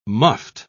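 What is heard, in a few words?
"muffed", British English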